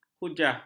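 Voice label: "hujah", Malay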